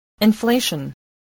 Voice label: "inflation", spelling voice